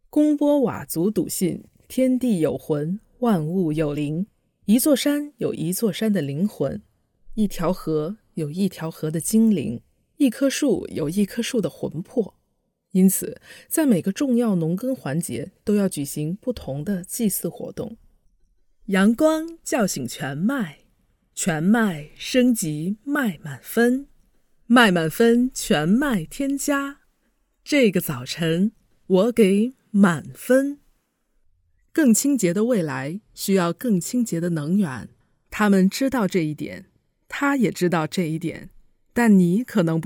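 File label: ZH General, Chinese; GoLocalise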